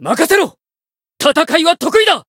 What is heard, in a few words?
Voice variable chant, jp